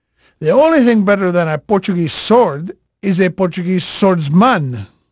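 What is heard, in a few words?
Portuguese male, voice; Pirates Of The Caribbean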